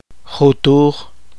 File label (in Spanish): "enhebrado", Poqomchi